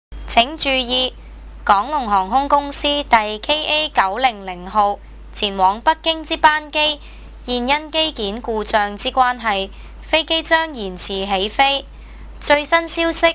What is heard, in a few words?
Delay cantonese